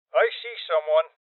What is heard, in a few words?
Voices Enemy Spotted Male Old